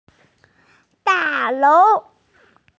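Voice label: "building", female; da4lou2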